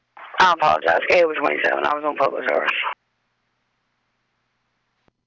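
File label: P25 dispatch radio; NC VIPER P25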